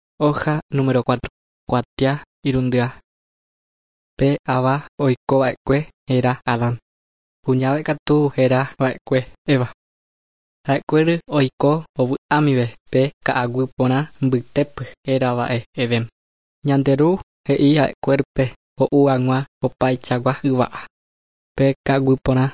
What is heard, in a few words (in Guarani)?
guarani mbya; good news and words of life; bible